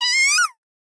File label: princess damage, voice